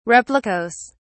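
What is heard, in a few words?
"replicas", British English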